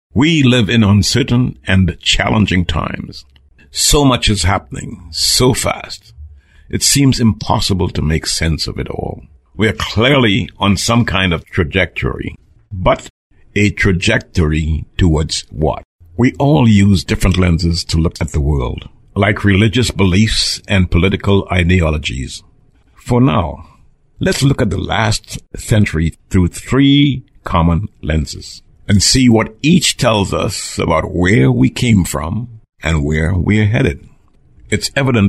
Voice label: Narration